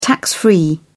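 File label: "tax-free", British English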